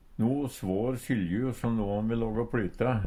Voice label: svå, Numedalsmål; Verb; Handverk (metall, tre, lær)

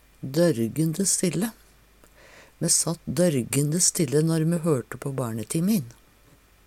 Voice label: "dorgende stille", Numedalsmål; heilt stille

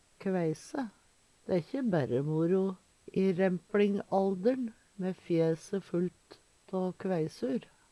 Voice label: kveise, Numedalsmål

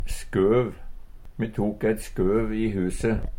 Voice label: "skøv", Numedalsmål; Substantiv inkjekjønn

